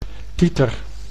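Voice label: "titer", Dutch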